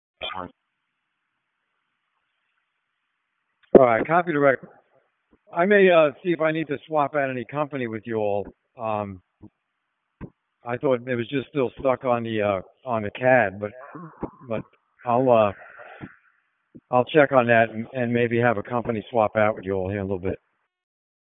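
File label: FIRE Operations, p25; FIRE OPS 1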